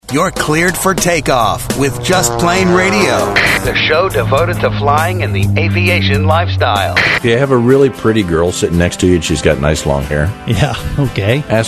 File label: Promo